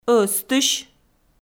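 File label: "ӧзтысь", Komi, female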